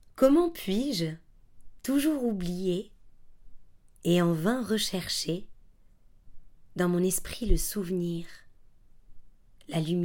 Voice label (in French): montage baignoire, poetry (French); Baignoire from Mon amour est d’eau claire(…)